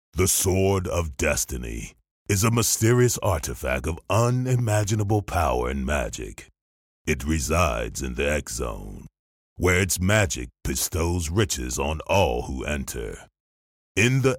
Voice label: english american video games